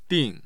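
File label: "ding", Chinese, fourth tone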